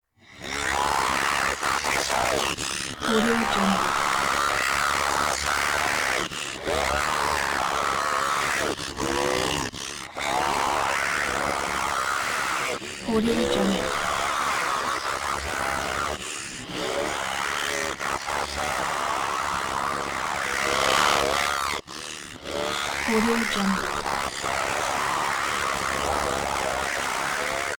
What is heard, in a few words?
robot scream processed continuous yelling; rizzy; prosoundfx